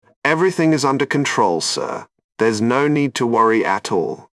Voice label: calm; jarvis; ultron; claude-voice